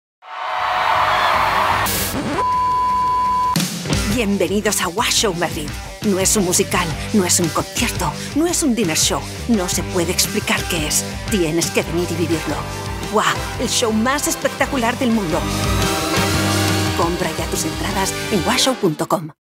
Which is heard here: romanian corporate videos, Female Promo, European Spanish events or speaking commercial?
European Spanish events